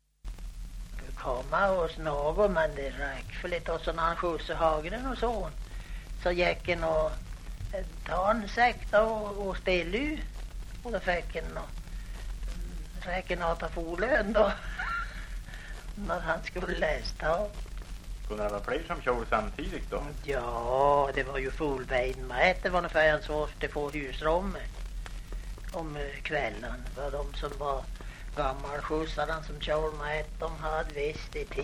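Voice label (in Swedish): Häggenås Jämtland, dialekter; Folkminnen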